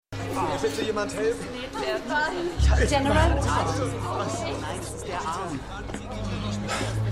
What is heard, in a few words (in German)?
Krankenschwester, Stimmproben; Revolution